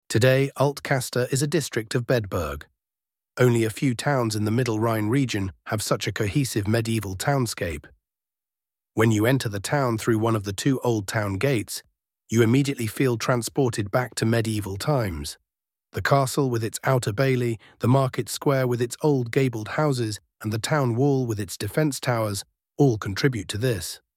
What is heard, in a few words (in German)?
audioguide englisch, Historischer Ortskern - Alt Kaster; bedburg; Romantisches Altstadtflair in Bedburg-Kaster